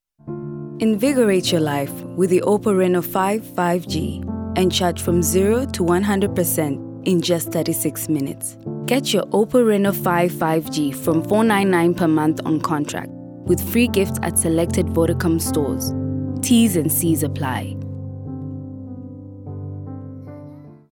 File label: Soft Sell